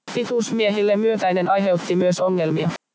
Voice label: noisy factory

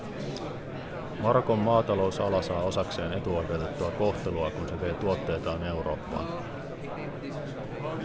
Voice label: babble

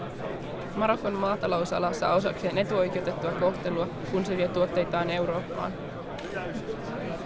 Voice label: babble

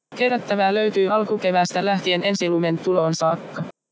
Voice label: cleaned babble

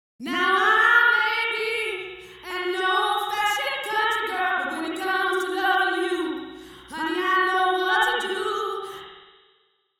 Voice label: voice processed original

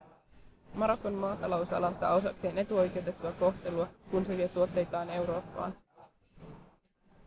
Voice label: factory1 cleaned

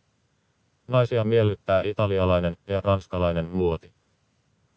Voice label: cleaned babble

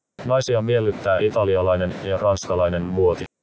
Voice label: noisy babble

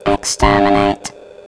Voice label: voice 500hz

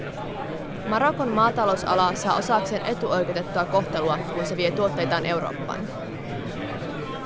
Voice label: babble, speech noisy synthesis

